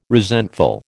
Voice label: "resentful", American